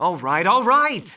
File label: Male; baldurs1